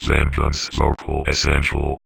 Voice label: Vocoder Phrases; Vengeance Samples

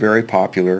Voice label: LoFi Words, LoFi Vocals

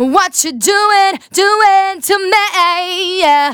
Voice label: phrase female

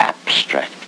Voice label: LoFi Words, LoFi Vocals

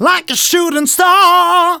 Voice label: Phrase Male; Vengeance Samples

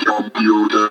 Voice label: Vocoder Words; Vengeance Samples

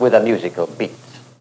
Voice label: LoFi Phrases, LoFi Vocals; Vengeance Samples

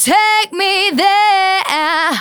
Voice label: Phrase Female; Vengeance Samples; Phrases

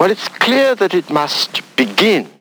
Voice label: LoFi Phrases, LoFi Vocals; Vengeance Samples

